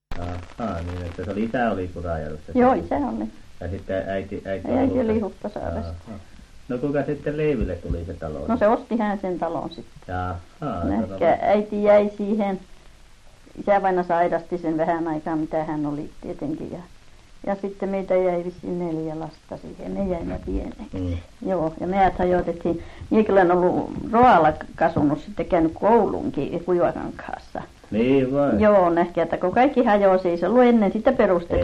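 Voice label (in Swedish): Hietaniemi Norrbotten, dialekter, svenska sagor; Folkminnen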